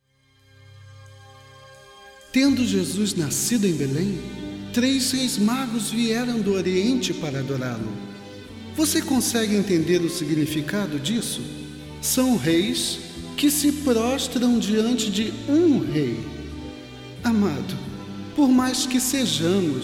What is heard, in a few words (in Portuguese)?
Narraccao